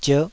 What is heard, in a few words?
"zierh5", Taiwanese